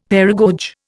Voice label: "paragoge", Indian; Dictionary

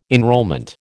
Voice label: "enrolment", American

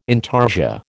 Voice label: "intarsia", American

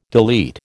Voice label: "delete", American

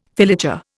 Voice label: "filature", Indian

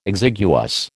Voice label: "exiguous", American

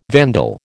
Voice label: "vandal", American

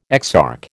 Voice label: "exarch", American

